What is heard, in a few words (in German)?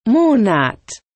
"Monat", German